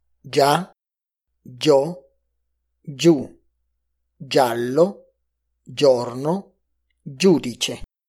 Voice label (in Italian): fonetica suoni gia gio giu